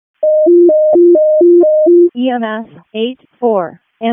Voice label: WC EMS ALERT, p25; wake

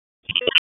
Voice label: Police Northwest District Tac 1; Raleigh; wake; p25

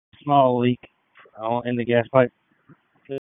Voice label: FIRE OPS, p25

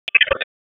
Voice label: Police Northwest District Tac 1; Raleigh; p25; wake

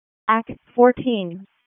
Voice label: EMS ALERT; Wake; p25

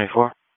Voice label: Police Spec Ops Tac 4, p25; Raleigh; wake

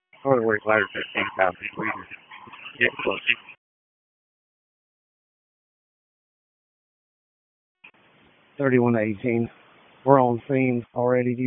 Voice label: PS TAC, p25; wake p25

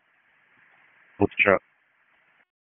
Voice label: PS TAC, p25

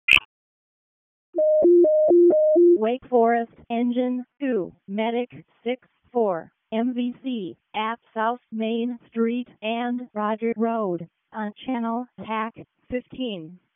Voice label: EMS ALERT; wake; p25

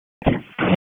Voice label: FIRE OPS, p25; wake

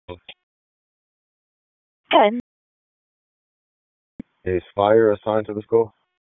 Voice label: Police Northeast District Disp, p25

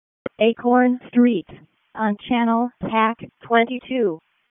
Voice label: WC EMS ALERT, p25; wake

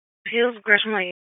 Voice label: Police Northeast District Disp, p25; Raleigh

